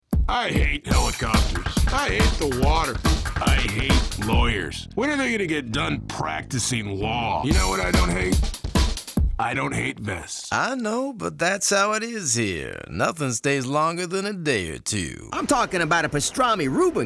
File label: VO Character